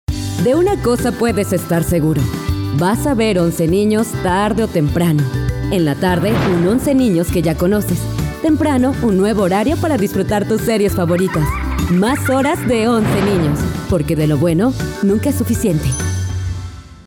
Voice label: locutora mexicana mexican voiceover; locutora de México